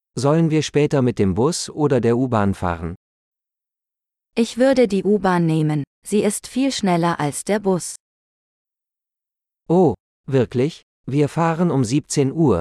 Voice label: Using Public Transport - Dialogue, German; learn-german; topic-course-travel

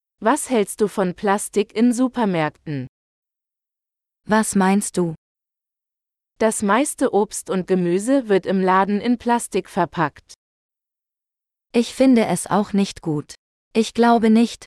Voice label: Plastic Packaging - Dialogue, German; learn-german; topic-course-sustainability-and-climate-change

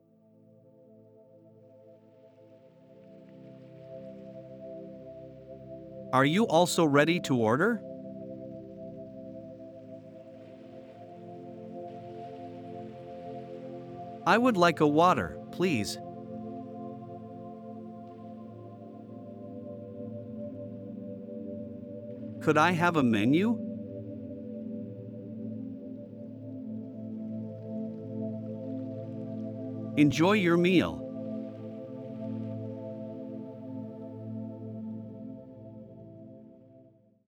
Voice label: At the Restaurant Relax Dialogue; en-en

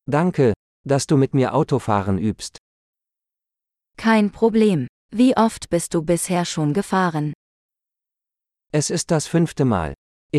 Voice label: Driving a Car - Dialogue; de-en

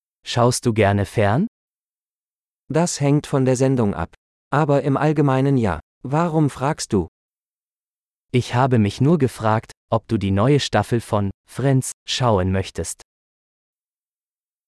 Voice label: Watching TV - Dialogue, German; conversations-advanced-course

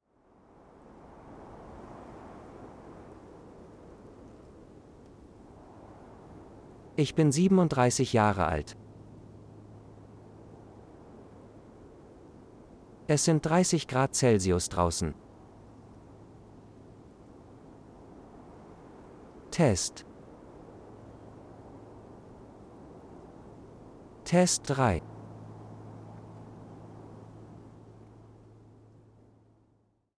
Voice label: Relax Wind Phrases, de-en; Intern Test Unit Introducing Yourself

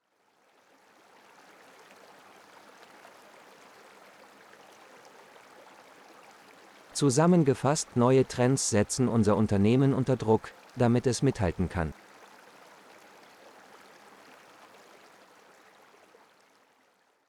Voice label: Relax Water Phrases, de-en; Giving a Business Presentation

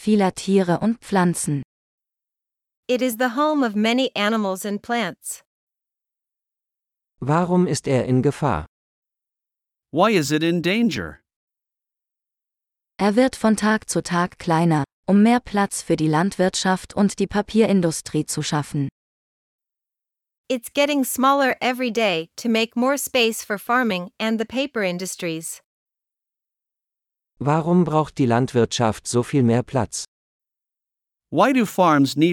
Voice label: Rainforest - Dialogue (with translations), German; learn-german; topic-course-sustainability-and-climate-change

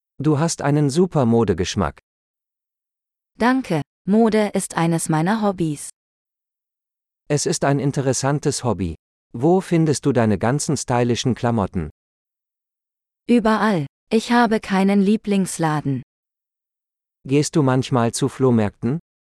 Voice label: Fashion - Dialogue, German; conversations-advanced-course

